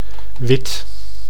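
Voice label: "wit", Dutch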